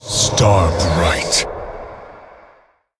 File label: Male Elemental Attack